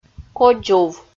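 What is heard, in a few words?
"кодзув" Komi, female; audio dict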